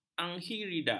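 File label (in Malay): "anhidrida", Malay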